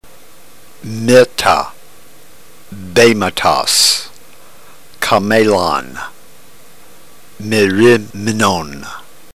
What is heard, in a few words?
drill, ntgreek